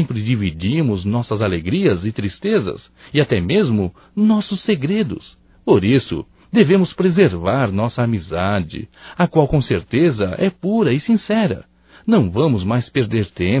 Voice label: SAUDADES ONDE ESTA VOCE, Só Voz, masc; Telemensagens; Telemensagens 2017

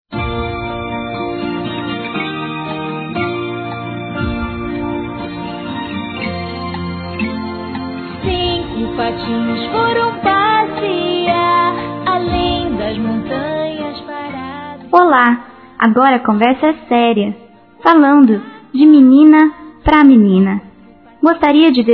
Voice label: Aniv. Amiguinha Feminino, Telemensagens; Aniversário Infantil

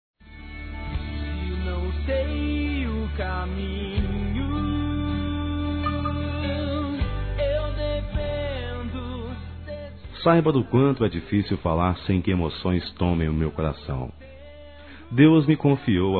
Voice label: Aniversário Avô Masculino; Aniversários; Aniv Familiar

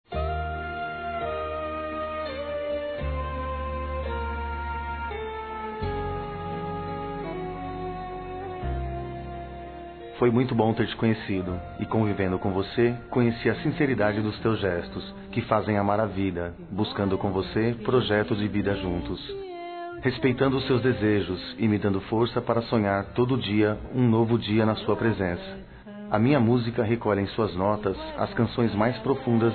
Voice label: Neutro Masculino, Telemensagens Dia Dos namorados; Telemensagens 2017

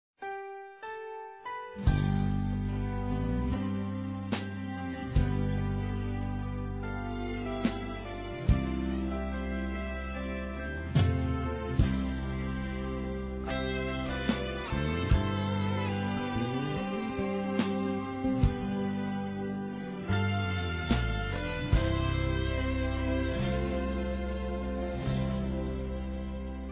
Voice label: Mensagem Para Mãe Feminino; Telemensagens; Telemensagens 2017

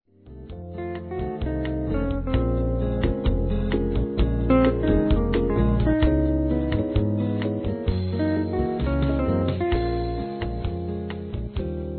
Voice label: RECONCILIAÇÃO F; Telemensagens; Sensuais; fem

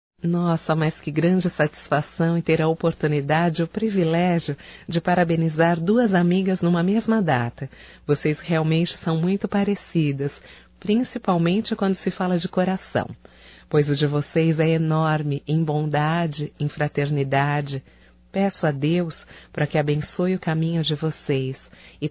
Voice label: Aniversário Gêmeas Feminino, Voz; Telemensagens 2017

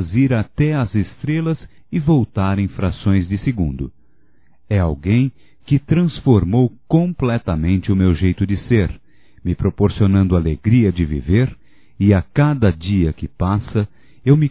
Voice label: O Amor Masculino, Telemensagens; Telemensagens 2017

